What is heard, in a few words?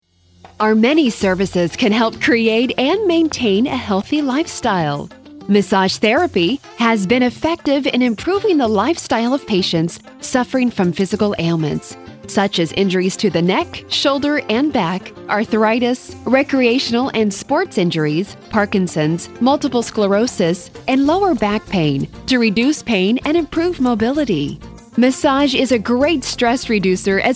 female voices, spa; audio on hold